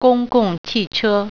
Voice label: "bus", Mandarin